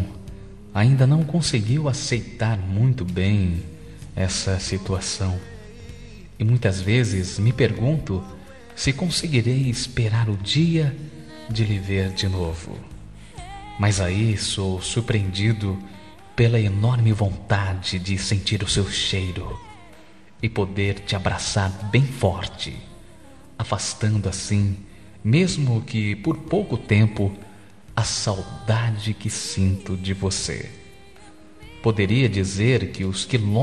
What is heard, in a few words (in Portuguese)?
telemensagem amor distante voz masculina